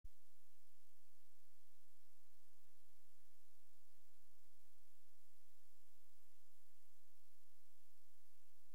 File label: ATC RECORDING